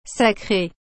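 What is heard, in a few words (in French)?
"sacré", French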